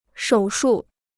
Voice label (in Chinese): "手术", Chinese